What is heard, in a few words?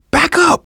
backup quiet, manager, survivor, voice; Left 4 Dead 2; left4dead2 dlc2